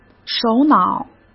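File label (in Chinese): "首脑", Mandarin Chinese; hsk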